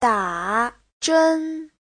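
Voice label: "dǎzhēn", Chinese; Giáo trình Hán ngữ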